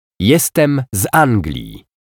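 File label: "Jestem z Anglii", Polish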